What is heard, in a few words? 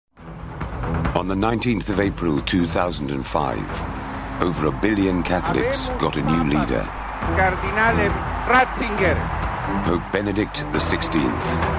Documentary Narration; Pope